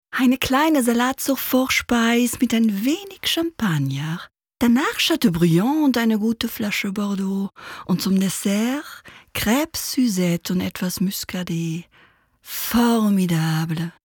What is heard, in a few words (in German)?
Franzosisch